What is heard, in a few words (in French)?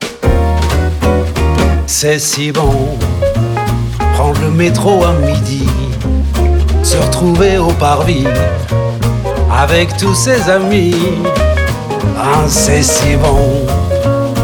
radio fr chant; 30s; stib